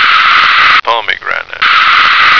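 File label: "pomegranate", American English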